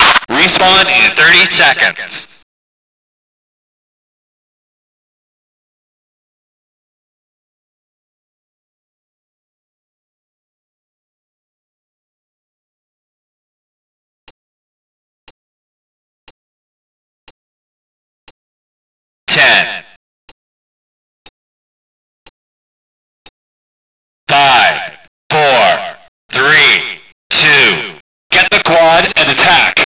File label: male; lmctf; radio; quad